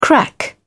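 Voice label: "crack-up", British English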